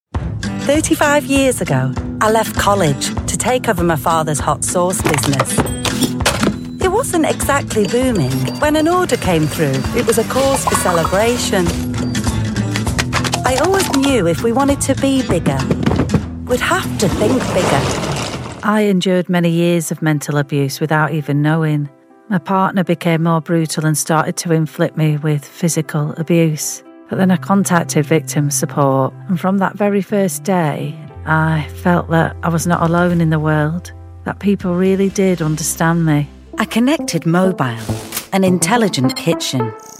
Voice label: corporate

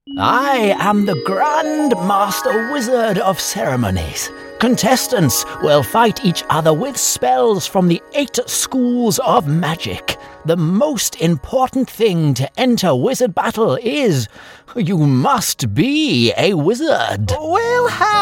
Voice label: character

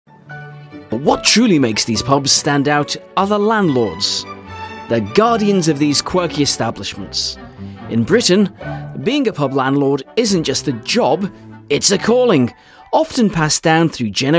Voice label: audiobook

